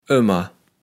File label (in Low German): "ömmer", kaevels platt